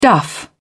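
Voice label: "duff", British English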